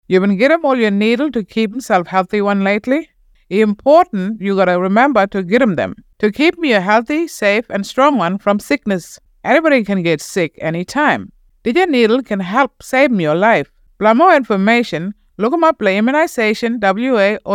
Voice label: Immunisation Kriol, immunisation campaign; Campaign dont assume youre immune